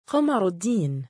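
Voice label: male "qamaruddiin", Arabic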